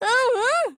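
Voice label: AGREE Japanese; Type82S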